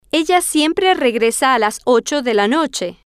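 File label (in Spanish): ella siempre regresa a las ocho de la noche, spanish, female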